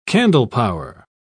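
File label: "candlepower", British English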